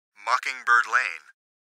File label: GPS voice